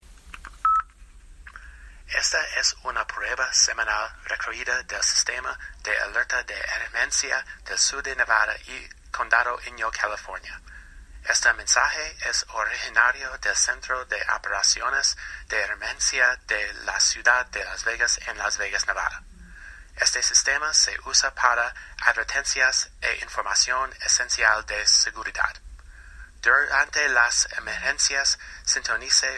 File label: Spanish Weekly EAS Test